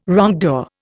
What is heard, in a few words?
"wrongdoer", Indian